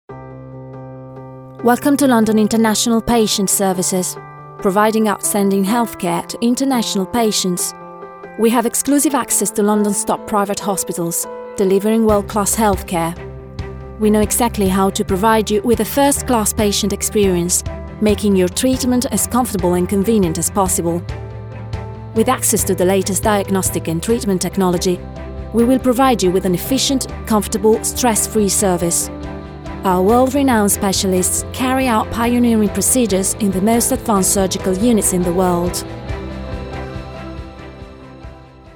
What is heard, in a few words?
English corporate